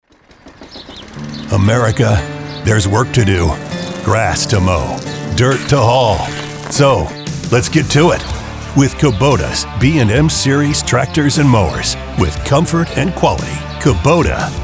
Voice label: COMMERCIAL